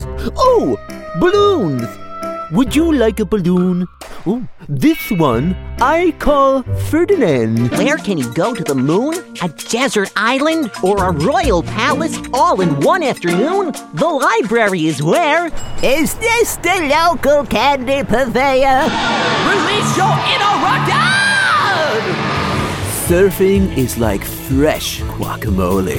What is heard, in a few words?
Character